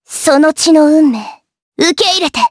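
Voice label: Skill, Japanese; Lewsia; Kingsraid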